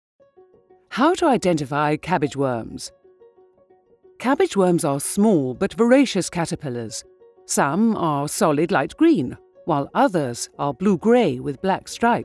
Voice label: Character Corporate